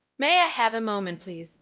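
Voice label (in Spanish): Voice Acting, es, female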